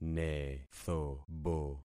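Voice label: "nethobo", concatenated syllables; Affixing experiment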